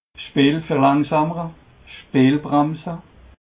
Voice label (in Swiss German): "speel verlangsaemmere", alsace